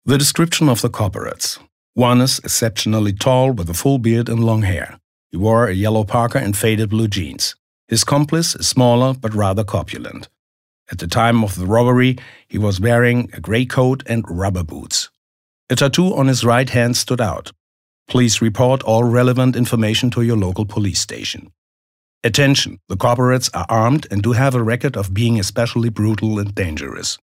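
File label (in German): US English Kommentar Neutral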